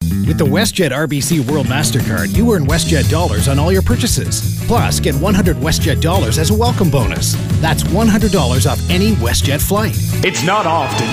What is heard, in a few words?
Voice Over